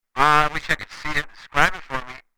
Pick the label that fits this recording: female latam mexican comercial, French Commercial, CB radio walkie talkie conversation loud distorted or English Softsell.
CB radio walkie talkie conversation loud distorted